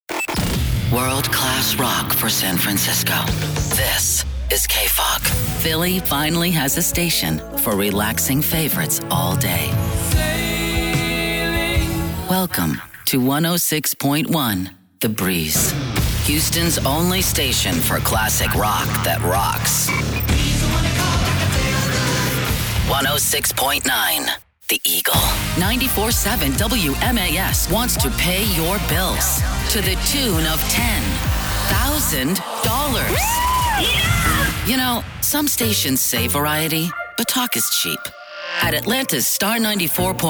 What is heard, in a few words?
Radio Imaging